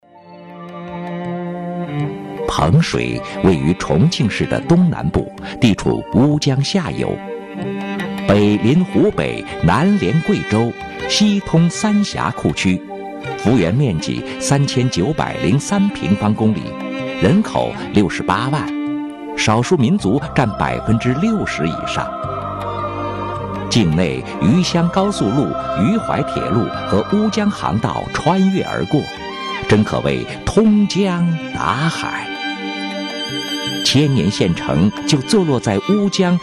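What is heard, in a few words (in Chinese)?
宣传片_城市_磁性; 魅力彭水; 任志宏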